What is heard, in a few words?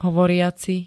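"hovoriaci", Slovak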